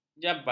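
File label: "jabal", Malay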